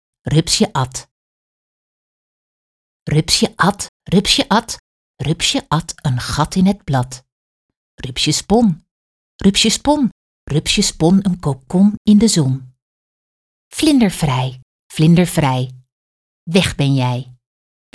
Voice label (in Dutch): Rupsje at gedicht; taalsprong; kriebelbeestjes